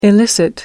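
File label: "illicit", English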